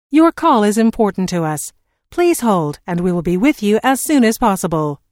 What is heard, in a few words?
Your Call Is Important To Us Please Hold And We Will Be With You As Soon As Possible, Telephone prompt; Recorded Voice Sound Effects